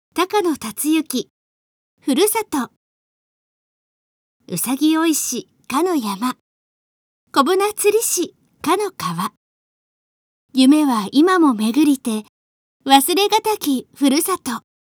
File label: girl; 故郷